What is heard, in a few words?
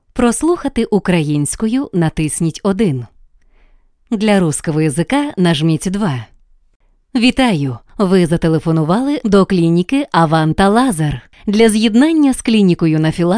IVR ukr